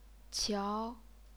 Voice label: "qiao2", chinese pinyin

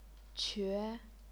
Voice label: "que2", chinese pinyin

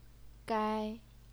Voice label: "gai1", chinese pinyin

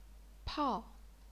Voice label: "pao4", chinese pinyin

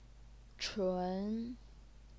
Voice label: "chun2", Chinese pinyin

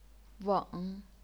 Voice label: "weng3", chinese pinyin

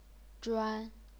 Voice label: "zhuan1", chinese pinyin

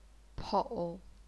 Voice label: "pou3", chinese pinyin

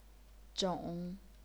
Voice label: "zhong3", chinese pinyin

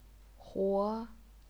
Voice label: "huo2", chinese pinyin

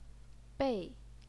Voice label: "bei4", Chinese pinyin

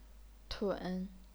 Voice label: "tun3", chinese pinyin

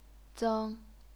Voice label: "zeng1", chinese pinyin

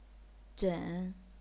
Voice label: "zhen3", chinese pinyin

